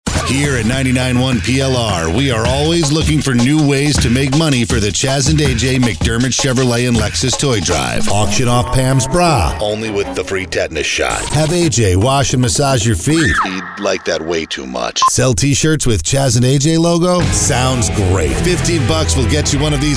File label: Promo